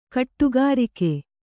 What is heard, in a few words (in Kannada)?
"ಗುಡಿಗಾರಿಕೆ", Kannada